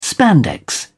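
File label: "spandex", English, British English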